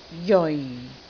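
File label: "jeoi4", Cantonese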